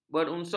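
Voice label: "berunsur", Malay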